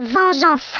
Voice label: "revenge", French

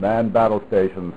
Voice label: Man Battle Stations; U-BOAT 1.5.3

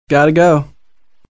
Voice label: Male Gotta Go; Survivor The Interactive Game; Player

